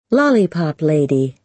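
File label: "lollipop lady", American English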